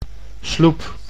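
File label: "sloep", Dutch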